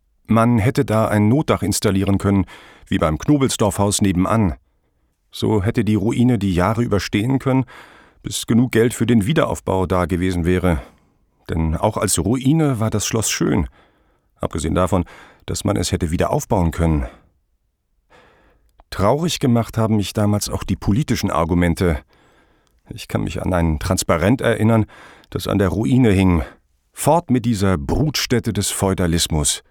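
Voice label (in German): audioguide, deutsch männer; sprecher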